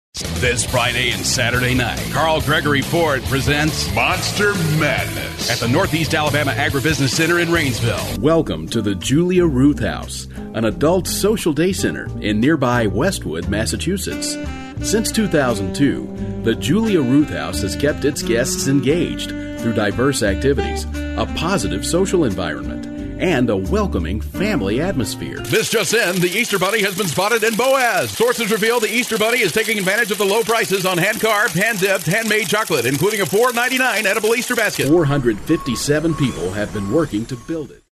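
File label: US English, male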